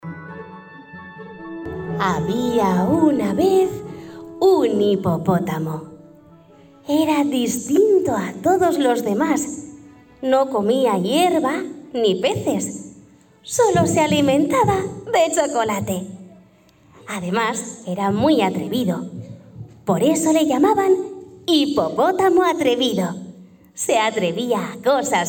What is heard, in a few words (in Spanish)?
locutora narracion Cuento infantil cuentacuentos; Hipopotamo Atrevido